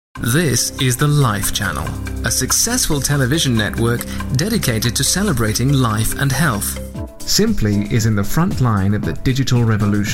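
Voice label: British English, Corporate; GoLocalise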